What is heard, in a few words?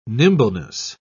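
"nimbleness", British English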